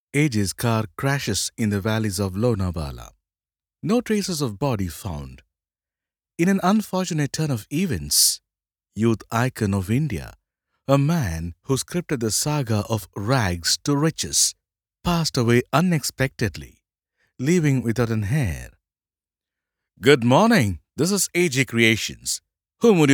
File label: Audio Book